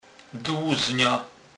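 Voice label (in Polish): "dluzna", Kurpie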